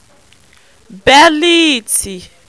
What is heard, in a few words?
"beliiti", Yoruba